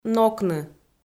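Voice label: "нокны", Komi, female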